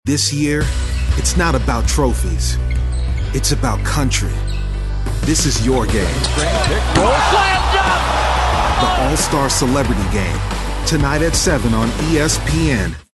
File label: Sports Promo